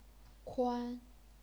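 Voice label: "kuan", Chinese, first tone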